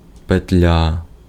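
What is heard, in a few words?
Ukrainian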